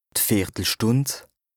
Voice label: "d'Vìertelstùnd", Alsace, Bas Rhin

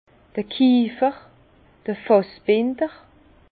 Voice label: "kiefer", Bas Rhin; tonnelier

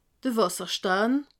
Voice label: "wasserstein", alsace

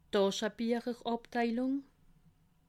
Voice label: "taschebiecherabteilung", alsace

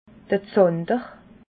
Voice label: "zander", alsace

